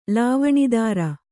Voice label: "ಲಾವಣಿದಾರ", Kannada

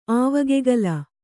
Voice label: "ಆವಗೆಗಲ", Kannada

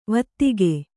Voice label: "ವೈದಿಕ", Kannada

